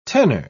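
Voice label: "tenor", English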